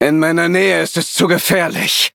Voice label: battle, de

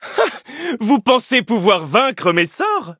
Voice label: battle, fr; Cookie Run

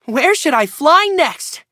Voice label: victory en

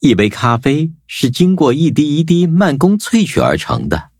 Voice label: Cookie upgrade, zh-hant; cookie run

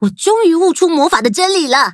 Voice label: levelup zh-hant; Cookie Run

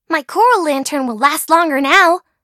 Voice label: level up, en

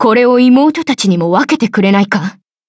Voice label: levelup ja; Cookie Run

